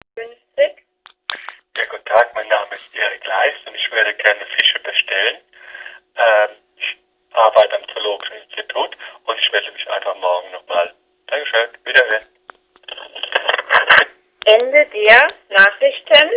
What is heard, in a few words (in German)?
blog fischbestellung anrufbeantworter